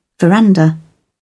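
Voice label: "veranda", British English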